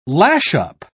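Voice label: "lash-up", British English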